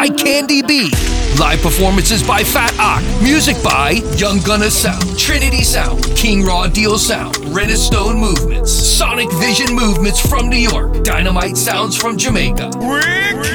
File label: Anniversary Promo Ad